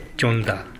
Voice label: "jonda", minamiyamagata